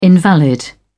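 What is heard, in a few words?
"invalid", English, uk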